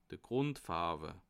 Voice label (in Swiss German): "grundfarwe", alsace